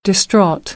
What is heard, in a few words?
"distraught", American English; ɑː - ɔː